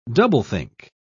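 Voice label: "doublethink", British English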